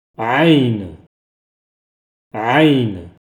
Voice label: ʿayn